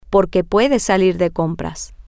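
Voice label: because she can go out shopping, Spanish, female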